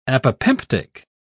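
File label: "apopemptic", British English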